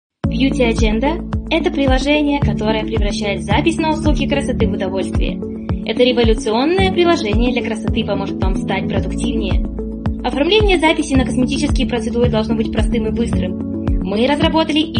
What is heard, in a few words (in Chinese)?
波兰语女声